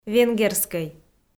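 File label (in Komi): "венгерскӧй", Komi, female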